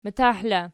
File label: "matah", Archi